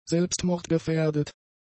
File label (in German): "selbstmordgefährdet", German; adjectives